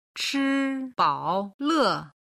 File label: "chi bao le", Chinese